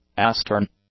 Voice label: "astern", English; adjectives

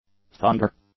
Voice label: "thunder", English; nouns

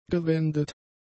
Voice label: "gewendet", German; adjectives

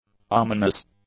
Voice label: "ominous", English; adjectives

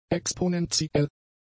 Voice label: "exponentiell", German; adjectives

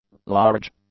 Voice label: "large", english; adjectives

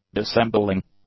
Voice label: "dissembling", English; adjectives

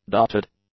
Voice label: "dotted", English; adjectives

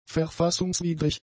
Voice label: "verfassungswidrig", German; adjectives

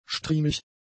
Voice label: "striemig", German; adjectives

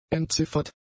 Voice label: "entziffert", German; adjectives

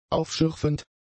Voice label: "aufschürfend", German; adjectives

